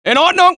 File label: German; mohaa